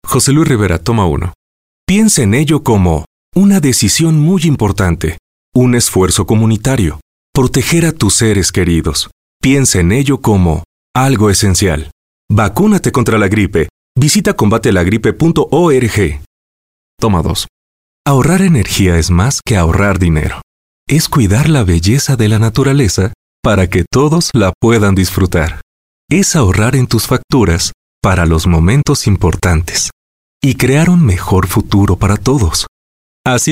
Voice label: spanish voice over male commercial; İspanyolca Seslendirme